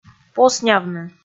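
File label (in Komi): "поснявны" Komi, female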